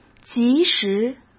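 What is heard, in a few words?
"及时" Mandarin Chinese; hsk; chinese-flashcards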